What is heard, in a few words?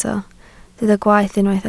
"gwaeth", Welsh